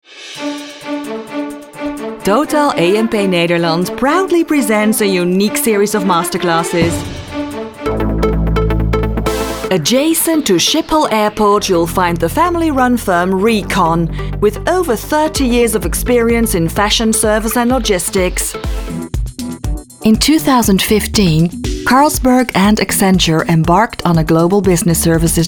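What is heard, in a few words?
Dutch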